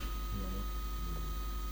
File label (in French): Grosse Voix